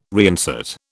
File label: "reinsert", British